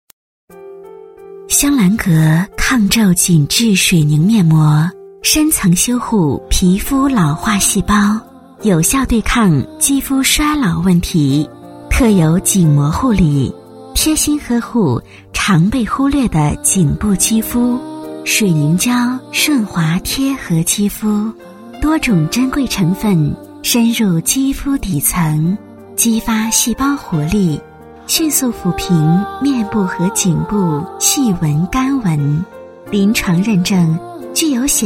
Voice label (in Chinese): 女 广告 面膜 温柔大气, 国语女声配音; 香兰阁面膜